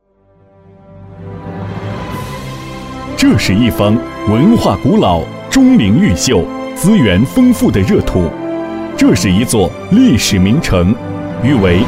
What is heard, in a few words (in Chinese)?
男62（大气）